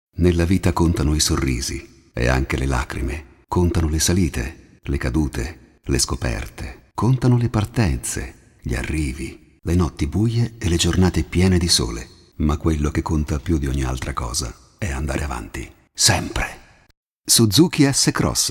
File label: spot; suzuki